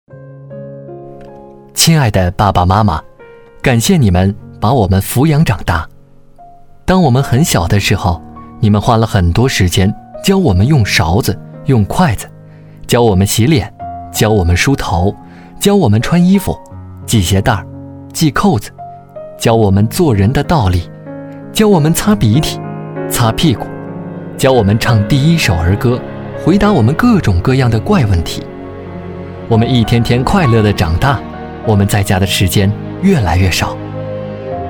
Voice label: 男 独白; 父母

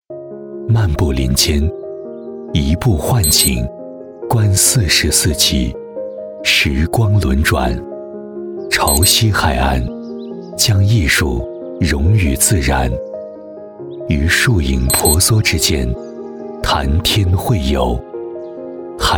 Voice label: 男 专题 地产类

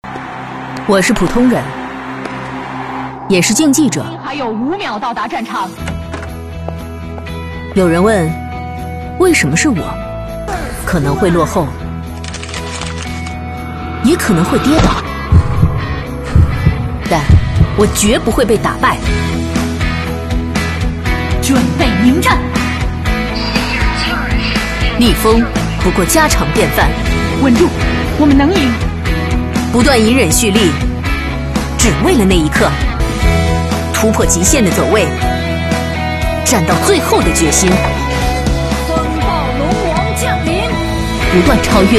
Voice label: 女,形象片; TGA王者女子赛

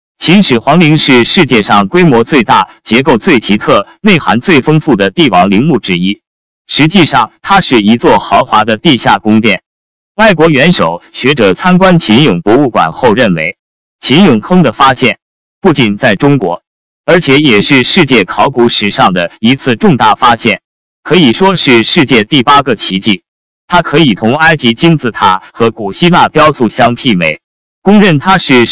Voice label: 男 景点介绍

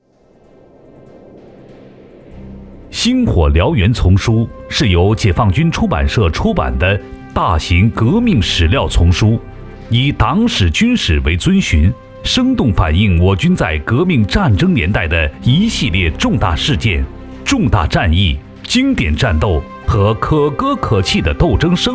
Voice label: 男 党建 军事书籍

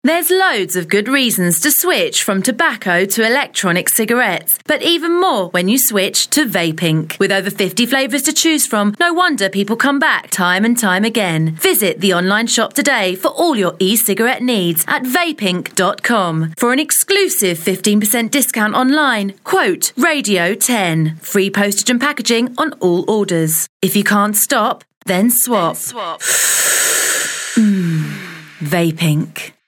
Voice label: 外籍女 Commercial

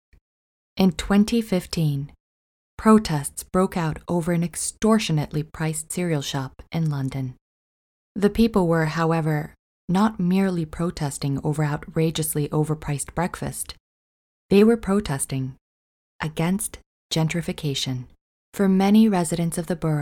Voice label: 旁白

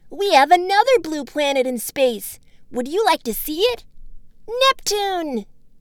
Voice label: 外籍英女 动画童声; 冥王星

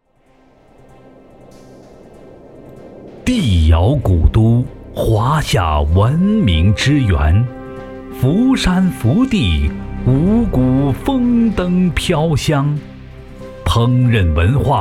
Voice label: 男 专题 厨师之乡; 福地浮山

